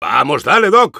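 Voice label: Engineer activate charge, Spanish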